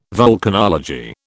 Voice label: "volcanology", British